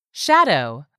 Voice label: "SHADOW", english; 3000 words